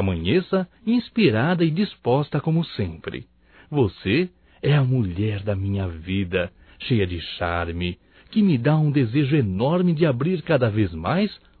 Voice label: BOA NOITE ROMâNTICO, masc; Bom dia, tarde e noite; Telemensagens 2017

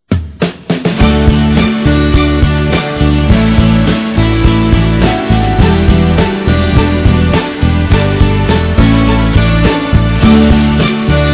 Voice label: Vou Gritar Saudade Masculino, Telemensagens

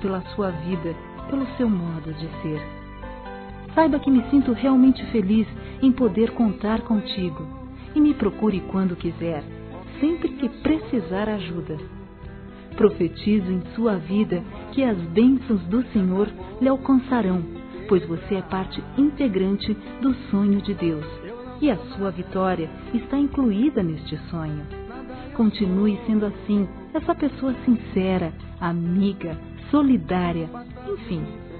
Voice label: AMIZADE GERAL (F), Telemensagens, Gospel, fem; Telemensagens 2017

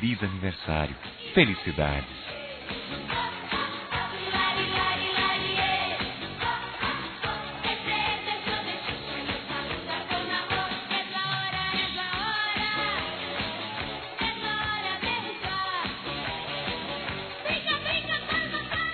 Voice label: Para Criança Geral Masculino; Telemensagens 2017; Aniversários; Aniversário Infantil

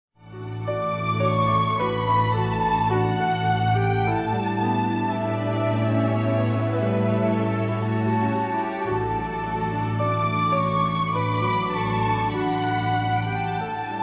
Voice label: ROMANTICO M, Dia das Mães, Masc; Telemensagens 2017

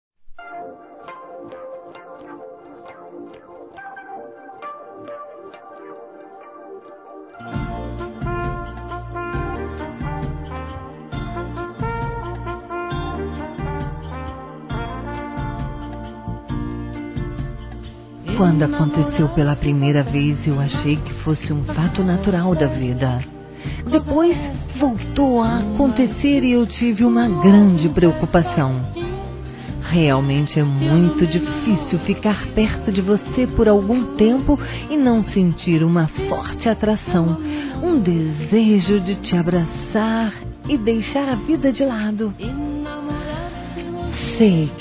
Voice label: CONQUISTA, Telemensagens, fem; Conquistas Cantadas paqueras; Telemensagens 2017

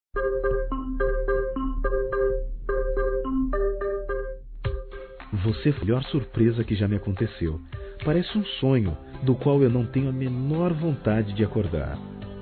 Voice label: Romantica Sedução Quero Embriagar Masculino

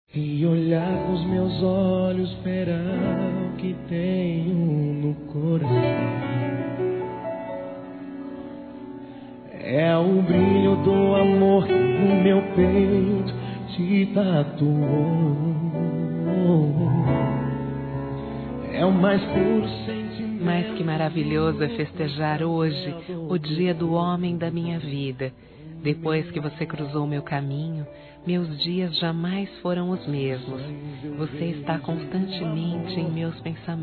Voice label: ANIV. ROMANTICO F

